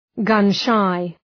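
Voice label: "gun-shy", English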